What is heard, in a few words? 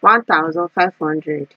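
"one thousand five hundred", Nigerian Pidgin, female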